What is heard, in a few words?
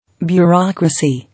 "Bureaucracy", English